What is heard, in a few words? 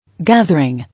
"Gathering", English